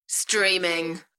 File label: UK female, "Streaming"; beanstalk audio